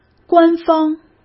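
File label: "官方", Mandarin Chinese; chinese flashcards; hsk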